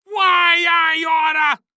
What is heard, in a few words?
Cartoon Loud Voice Why I Oughta